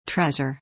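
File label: "treasure", English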